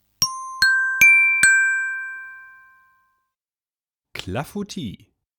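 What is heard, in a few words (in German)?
"Clafoutis", German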